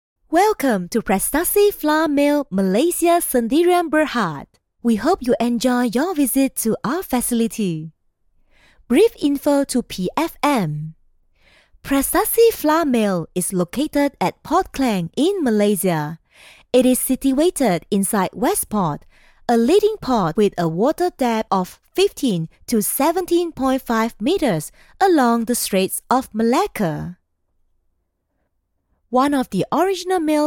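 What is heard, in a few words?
female voice, English accent, Chinese; GoLocalise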